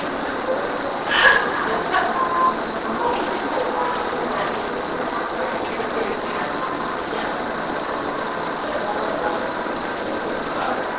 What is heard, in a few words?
Master Bed Room Unexplained Very Low Whisper (Need you to bed down) AMPLIFIED